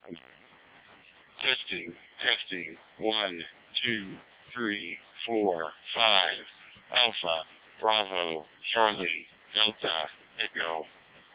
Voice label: freedv snr -03; 700D